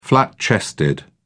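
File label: "flat chested", British English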